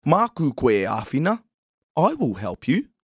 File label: "āwhina", Māori